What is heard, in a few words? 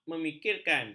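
"memikirkan", Malay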